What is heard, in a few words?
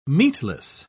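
"meatless", British English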